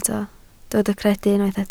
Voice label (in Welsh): "credu", Welsh